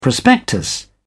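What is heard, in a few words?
"prospectus", British English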